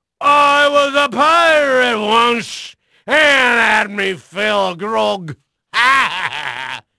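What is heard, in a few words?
English male; Pirates Of The Caribbean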